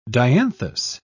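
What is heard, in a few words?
"dianthus", British English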